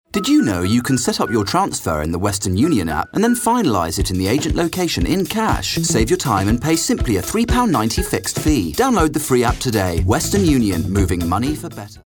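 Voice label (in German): Sprecher Native speaker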